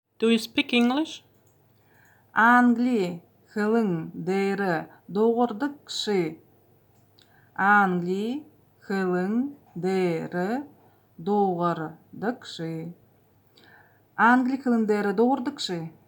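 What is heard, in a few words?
Buryat Do you speak English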